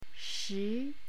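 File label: "shi2", Chinese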